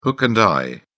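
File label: "hook and eye", British English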